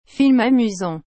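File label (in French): "film amusant", French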